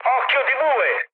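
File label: Italian bomb success mood med, speech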